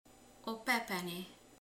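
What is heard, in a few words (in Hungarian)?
"sárgadinnye", Hungarian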